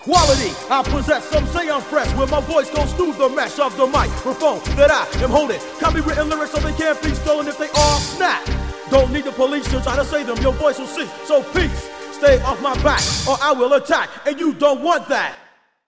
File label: rap